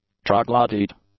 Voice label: "troglodyte", English

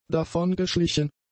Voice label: "davongeschlichen", German; adjectives

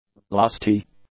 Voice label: "lusty", English; adjectives

